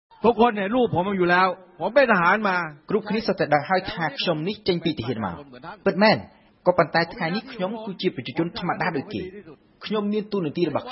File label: news sound; rfi; cambodgien; THAI ELECTION; PRAYUTH